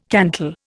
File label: "cantal", Indian